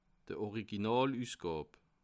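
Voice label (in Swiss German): "originalusgab", Bas-Rhin; livre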